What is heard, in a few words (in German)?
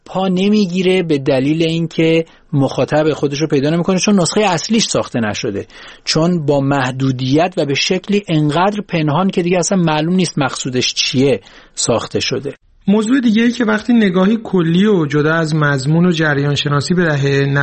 persisch, Sprachen